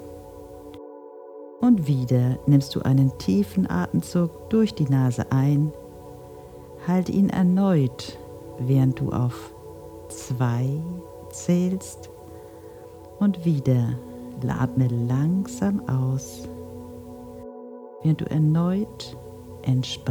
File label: Guided Meditation; umgang mit aerger